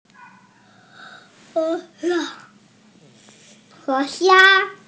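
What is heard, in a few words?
"country", Mandarin Chinese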